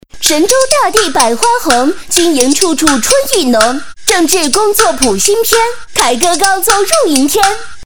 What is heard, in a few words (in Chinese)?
女 快板, 国语女声配音; 神州大地百花红